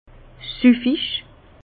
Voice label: "suffig", Bas Rhin; vin; goulayant

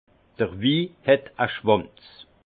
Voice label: "schwanz", alsace; vin; vin gâté épais

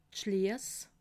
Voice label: "schliess", alsace

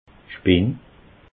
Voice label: "spinn", alsace

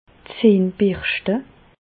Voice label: "zahnbirschte", Bas Rhin; medecine; brosser les dents

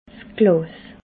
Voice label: "glas", alsace

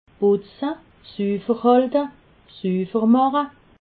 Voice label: "butze", alsace

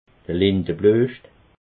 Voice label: "lindebluescht", alsace

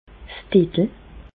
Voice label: "'s Diddel", Bas Rhin; medecine; mammelon

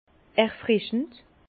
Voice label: "erfrischend", Bas Rhin; vin; rafraichissant

